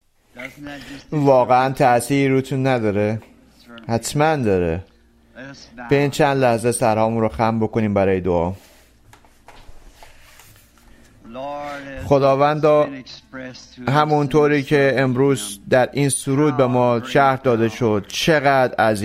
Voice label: Voice Over; An Absolute